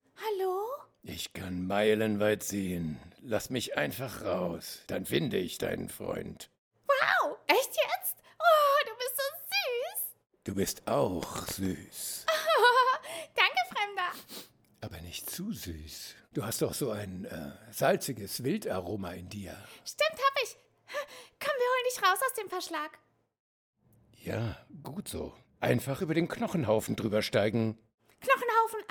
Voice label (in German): Synchronisation Animation; Pets